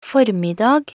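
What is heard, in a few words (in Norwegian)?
"formiddag", Norwegian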